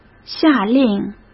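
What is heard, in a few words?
"下令", Mandarin Chinese; chinese-flashcards; hsk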